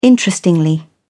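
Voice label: "interestingly", English, British English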